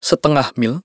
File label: 0.50 mile, indonesian male